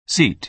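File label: "seat", American English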